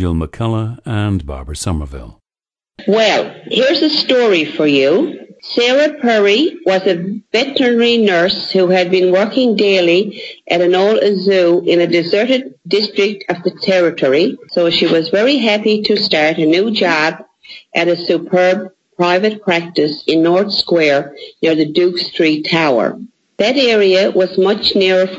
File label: newfoundland, dialects